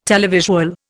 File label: "televisual", Indian